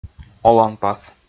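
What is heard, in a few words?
"оланпас", Komi, male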